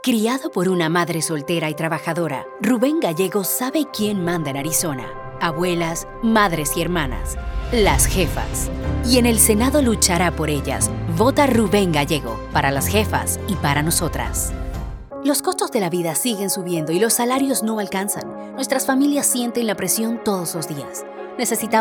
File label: spanish latin american political ads